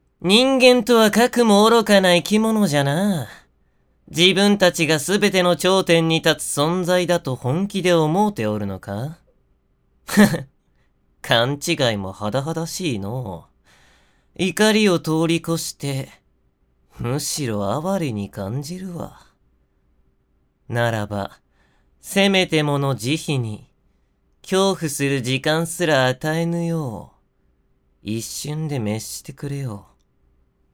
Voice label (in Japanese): 神様声質高